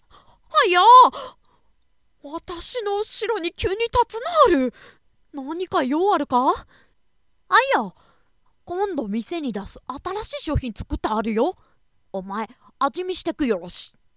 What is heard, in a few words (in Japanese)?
china otoko